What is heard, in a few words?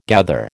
"gather", American